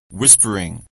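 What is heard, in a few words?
"whispering", English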